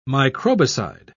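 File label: "microbicide", British English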